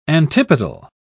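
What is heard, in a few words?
"antipodal", British English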